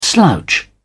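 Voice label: "slouch", British English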